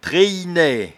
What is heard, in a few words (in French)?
"treyenaie", patois jura